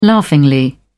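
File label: "laughingly", British English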